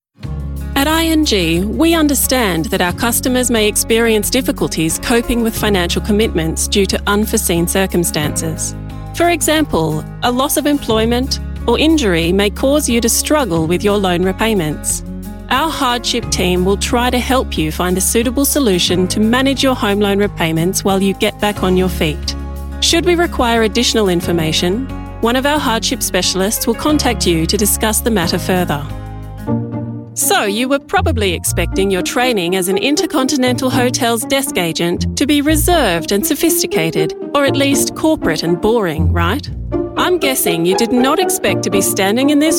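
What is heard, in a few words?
female, Australian English, narration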